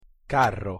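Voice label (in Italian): "carro", Italian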